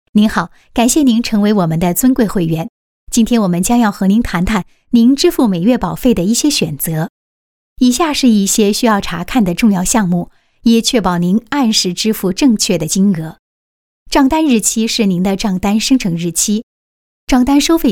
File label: Mandarin Corporate, China Female